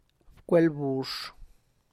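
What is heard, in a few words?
tlacochahuaya, Zapotec; husk to wrap tamales; corn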